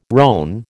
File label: "rone", American